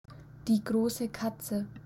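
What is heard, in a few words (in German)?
Die grosse katze, German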